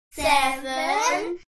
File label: HUMAN VOCAL Kids Counting "Seven"; smartsound